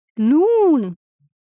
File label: "Loon", Punjabi